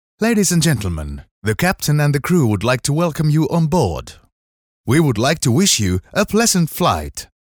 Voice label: male finnish voice over english

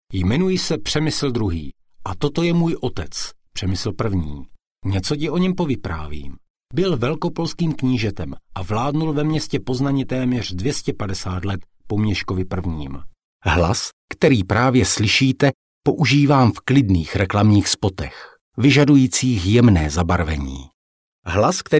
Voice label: male czech voice over narrative

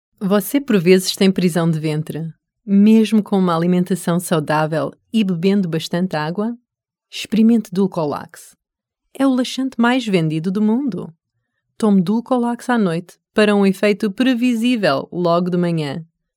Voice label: female portuguese voice over narrative, portugal

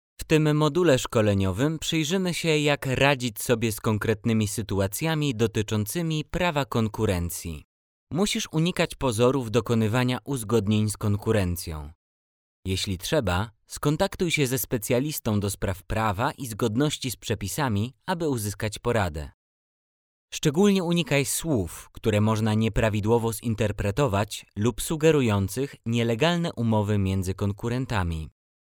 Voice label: male polish voice over talent narrative

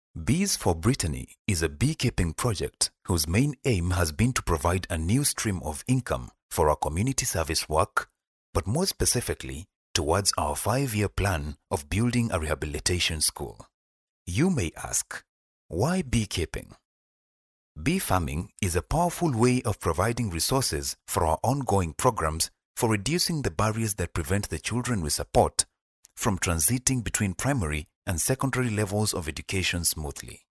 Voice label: male african voice over narrative

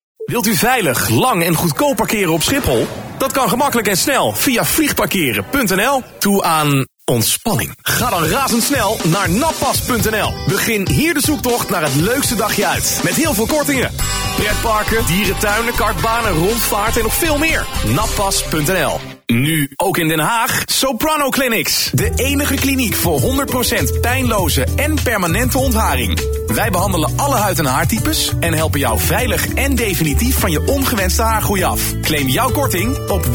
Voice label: male dutch voice over talent sales

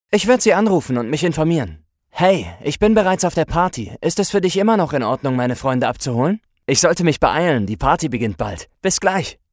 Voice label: male german voice over character

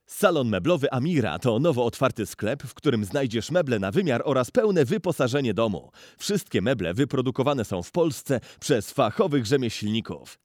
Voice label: male polish voice over talent sales

